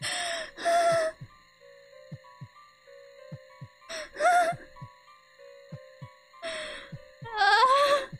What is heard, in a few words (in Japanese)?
ヘタレボイス; Flashpoint Ultimate 13; TheHouse